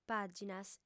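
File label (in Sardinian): "pàginas", female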